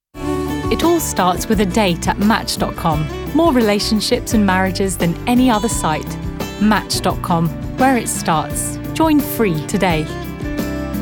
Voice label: female albanian and english commercial; voices; devine voices